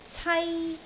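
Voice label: "cai1", Cantonese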